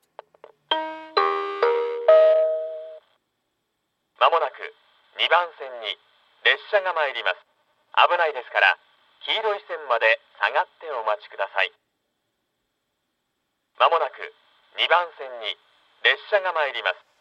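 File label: platform 2 down approaching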